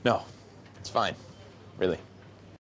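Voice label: apologizing, American English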